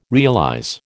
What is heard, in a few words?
"realize", American